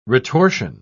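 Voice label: "retortion", British English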